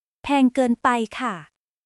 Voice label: Thai, basic thai phrases

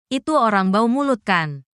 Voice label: indonesian phrases

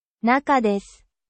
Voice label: japan

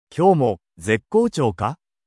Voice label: anime, japanese anime and internet slang

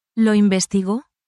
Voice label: spanish, spanish phrases

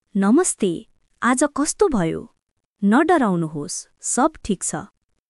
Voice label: Nepali, nepali greeting phrases; 日本の「お疲れ様です！」はネパール語でどう言う？職場で使える挨拶フレーズ集

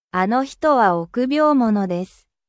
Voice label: japan

